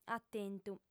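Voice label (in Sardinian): "atentu", female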